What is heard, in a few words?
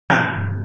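"lai2", Mandarin Chinese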